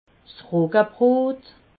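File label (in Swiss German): "roggebrot", Alsatian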